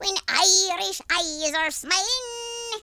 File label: victory, Irish; speech